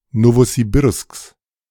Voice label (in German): Nowosibirsks, De